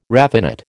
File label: "raffinate", American